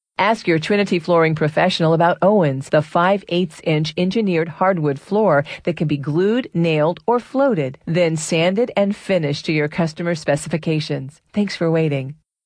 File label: female